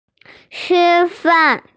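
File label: "eat", Mandarin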